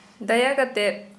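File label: Santali female please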